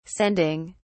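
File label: "sending", English, British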